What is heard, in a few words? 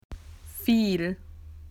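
"a lot", Australian English; PictureDictionary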